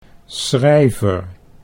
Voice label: "schrijver", Dutch

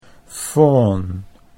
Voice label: "fawn", Dutch English

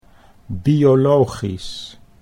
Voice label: "biologisch", Dutch

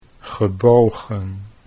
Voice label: "gebogen", Dutch

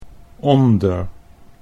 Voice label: "onder", Dutch

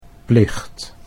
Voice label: "plicht", Dutch

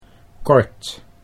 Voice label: "kort", Dutch

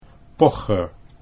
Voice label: "pocher", Dutch

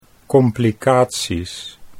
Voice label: "complicaties", Dutch

